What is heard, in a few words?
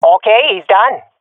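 i killed enemy, swat female; vo; Counter Strike Model Pack; CS:GO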